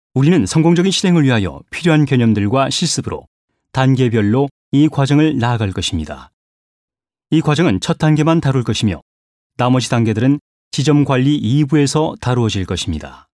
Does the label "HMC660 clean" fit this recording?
No